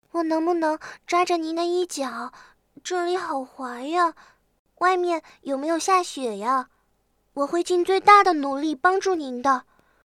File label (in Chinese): 女童体贴可爱